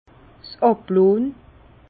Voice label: "ablonn", Bas Rhin; vin

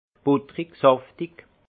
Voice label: "buttrig", alsace; fondant; vin

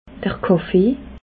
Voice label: "kaffe", alsace

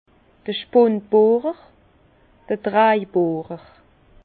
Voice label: "spundbohrer", Bas Rhin; vilbrequin

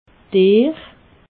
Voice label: "deer", alsace

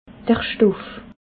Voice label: "stoff", alsace

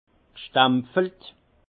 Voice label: "stempfelt", alsace; vin; sigillée

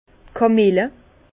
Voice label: "kamille", Bas Rhin; vin; camomille

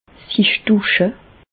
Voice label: "dusche", Bas Rhin; medecine; doucher se

